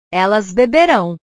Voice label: "elas beberao", portugues

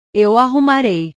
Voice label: "eu arrumarei", portugues

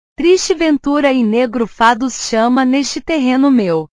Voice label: "fado", portugues

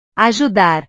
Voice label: "ajudar", Portuguese

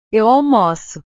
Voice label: "eu almoço", portugues

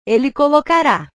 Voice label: "ele colocara", portugues; portugues/verbos

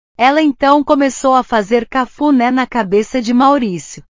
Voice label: "cafune", portugues

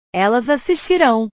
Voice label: "elas assistirao", portugues

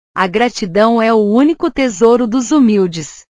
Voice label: "gratidão", portugues

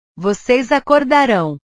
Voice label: "vocês acordarão", portugues; Ustedes despertarán

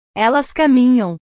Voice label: "elas caminham", portugues

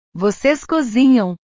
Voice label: "voces cozinham", portugues; cozinhar; portugues/verbos

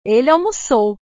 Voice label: "ele almocou", portugues

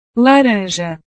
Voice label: "laranja", portugues

